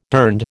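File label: "durned", American English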